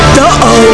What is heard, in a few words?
uh oh